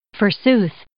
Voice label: "forsooth", English